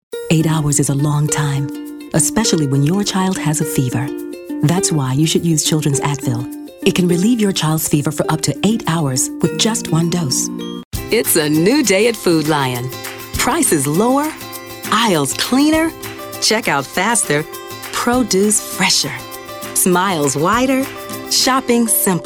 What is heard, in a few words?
Comm, commercial